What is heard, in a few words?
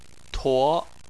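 "tuo", Chinese, second tone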